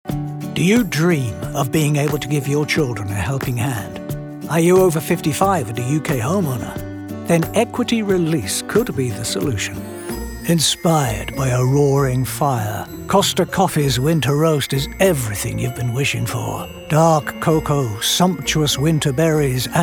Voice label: Commercial